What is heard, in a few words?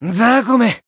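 Voice finish, Japanese; shigure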